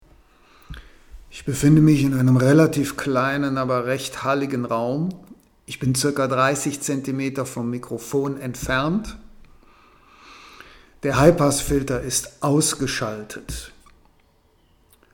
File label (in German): Sprache 30 cm ohne High Pass